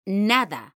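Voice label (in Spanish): "NADA" Spanish, female; mekanta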